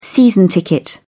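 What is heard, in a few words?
"season ticket", British English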